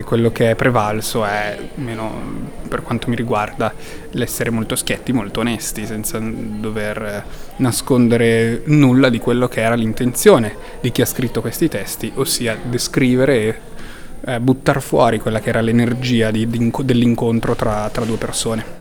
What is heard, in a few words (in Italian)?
intervista breve; ensemble ars ludica